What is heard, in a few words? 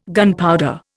"gunpowder", Indian